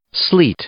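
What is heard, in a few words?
"sleet", English, male; noun; i-ɪ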